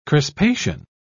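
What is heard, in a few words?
"crispation", British English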